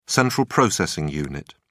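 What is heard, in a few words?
"central processing unit", British English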